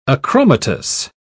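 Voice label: "achromatous", British English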